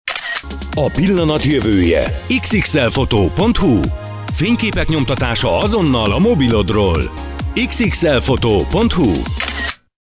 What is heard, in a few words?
spot